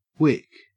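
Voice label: "wick", Australian English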